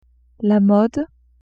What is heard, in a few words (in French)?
"mode", French; vetements